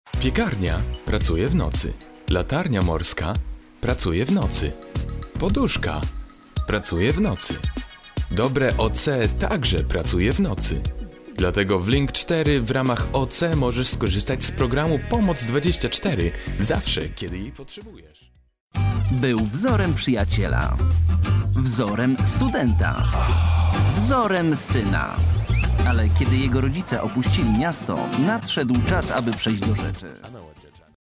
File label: male polish voice over talent sales